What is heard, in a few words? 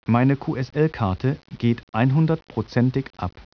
SPEECH GERMAN